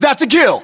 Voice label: inform killfirm infantry, battlechatter, English, US